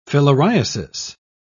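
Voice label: "filariasis", English, British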